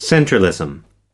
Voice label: "centralism", us

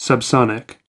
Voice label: "subsonic", us

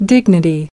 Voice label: "dignity", us

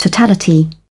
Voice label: "totality", British

